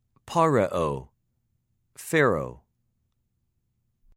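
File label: "פַּרְעֹה", Hebrew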